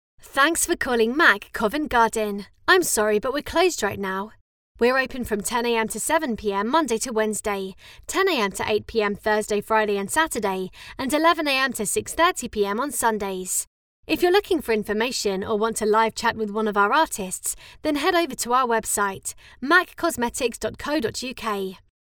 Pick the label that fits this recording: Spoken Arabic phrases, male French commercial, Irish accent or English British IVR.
English British IVR